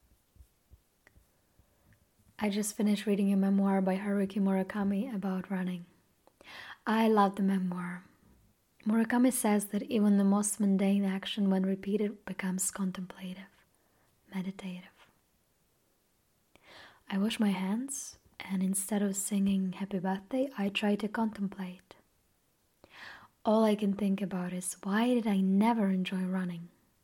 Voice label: AccEN, UK; voice demos; GoLocalise